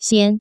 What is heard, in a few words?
"xian", Taiwan Mandarin; taiwan mandarin xian placement